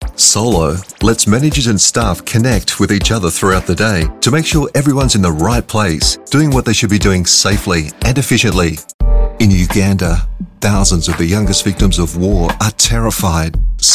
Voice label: english australian corporate videos

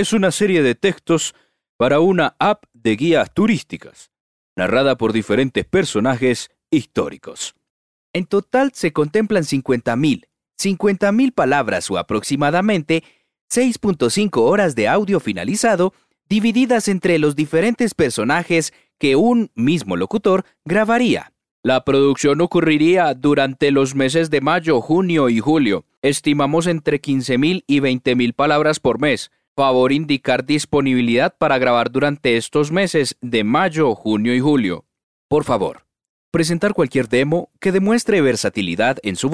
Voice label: spanish latin american neutral others

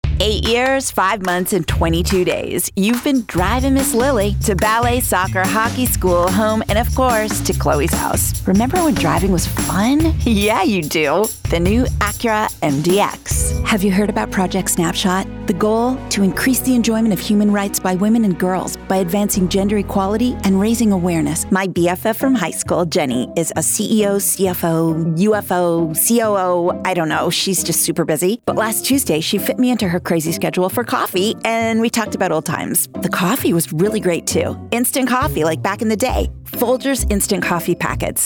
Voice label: english canadian commercial, comedic voice actors

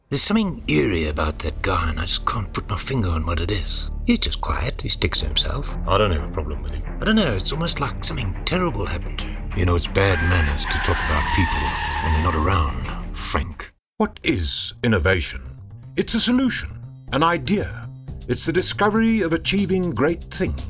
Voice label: english south african commercial; Südafrika; Englisch (südafrikanisch)

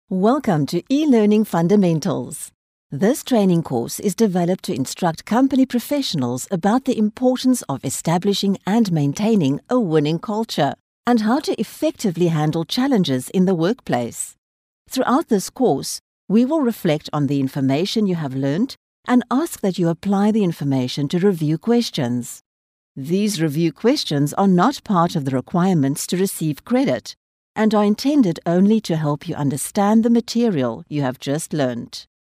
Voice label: english south african e-learning

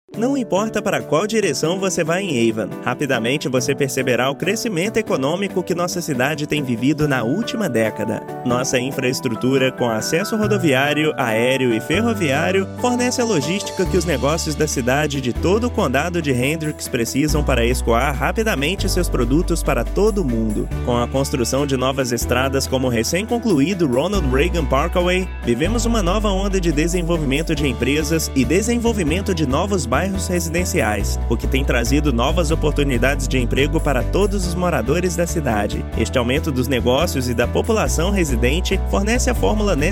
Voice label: portuguese brazilian commercial

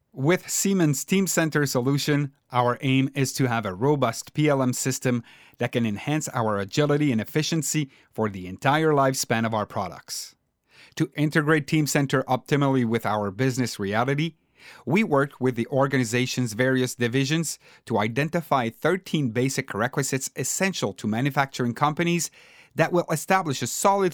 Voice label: french canadian others

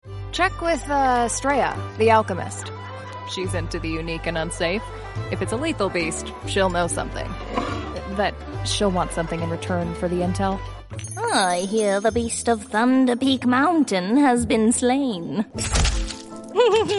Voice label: english canadian video games

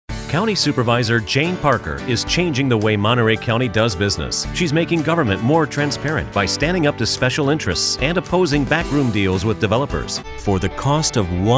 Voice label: english american political ads

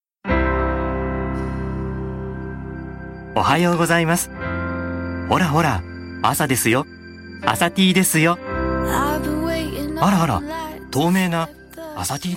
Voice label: japanese commercial

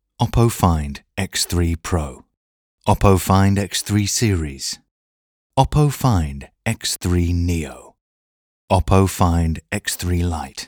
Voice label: English British promos

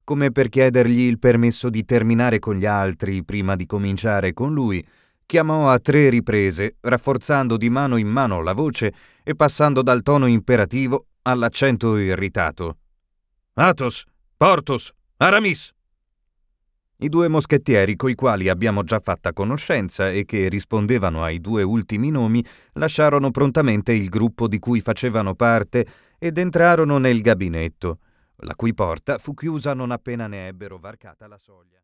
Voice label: italian narration

